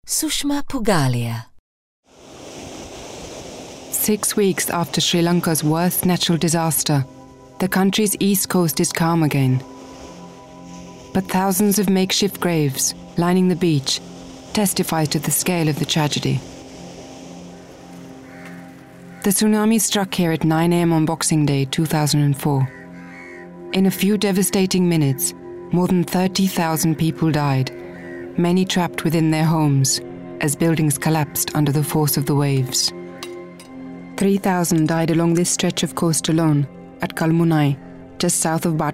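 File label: eng narration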